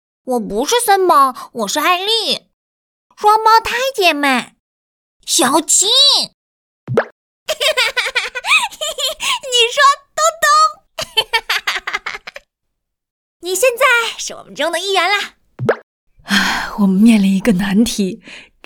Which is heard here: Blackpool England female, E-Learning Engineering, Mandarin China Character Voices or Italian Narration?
Mandarin China Character Voices